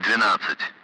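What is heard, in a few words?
Speech, Russian, Numbers, 12; 12-end; Allied Flight; DCS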